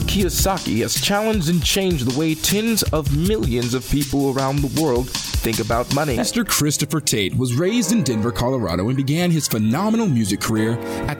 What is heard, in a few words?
Commercial